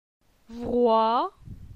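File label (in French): "Vroi", French; phonetique; la syllabe oi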